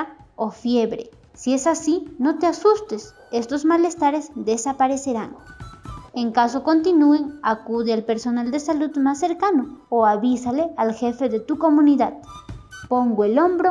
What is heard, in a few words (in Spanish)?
castellano localidades andinas vacunate sin miedo contra el coronavirus